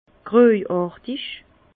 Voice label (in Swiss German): "grojartig", Bas Rhin; vin; grisâtre